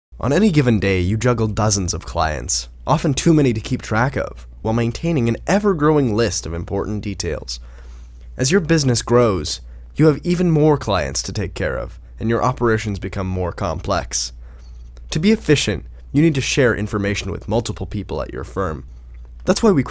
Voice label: Teen; kid